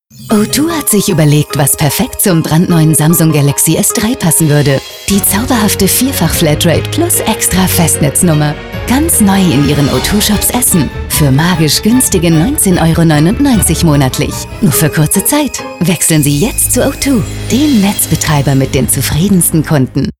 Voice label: Off Voice; o2; Samsung Galaxy III